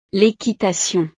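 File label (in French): "lequitation", French; deportes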